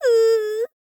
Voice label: "uu", girl